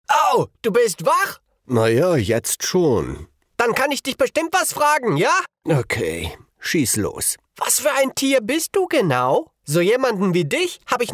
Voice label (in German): trickstimme; bandi